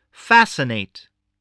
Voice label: "fascinate", voice

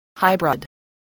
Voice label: "hybrid", voice spelling

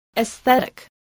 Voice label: "esthetic", voice, english

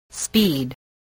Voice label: "speed", English voice

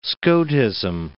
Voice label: "Scotism", English

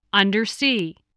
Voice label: "undersea", English spelling voice

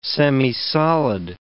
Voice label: "semisolid", English

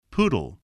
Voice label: "poodle", English

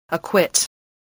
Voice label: "acquit", English voice

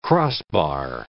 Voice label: "crossbar", english

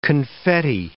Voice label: "confetti", English

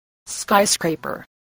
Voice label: "skyscraper", voice, spelling

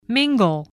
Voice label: "mingle", voice, spelling

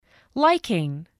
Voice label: "liking", spelling

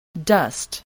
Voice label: "dust", English

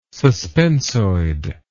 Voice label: "suspensoid", English word

